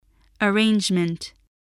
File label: "arrangement", English